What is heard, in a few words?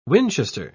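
"winchester", British English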